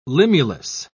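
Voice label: "limulus", British English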